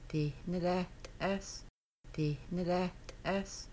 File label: You will fry chicken, Kaska; Making Bannock